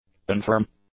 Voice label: "unfirm", english; adjectives